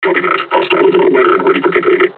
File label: taunt, combine charger; Half Life Alyx